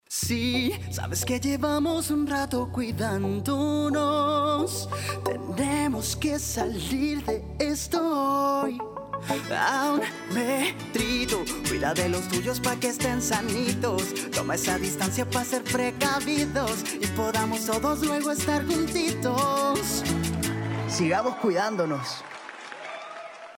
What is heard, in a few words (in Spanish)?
cuña campaña